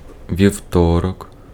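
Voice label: Ukrainian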